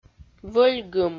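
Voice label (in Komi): "вӧльгым", Komi, female; audio dict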